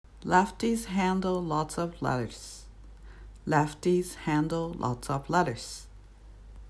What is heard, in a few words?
"lefties handle lots of lettuce", English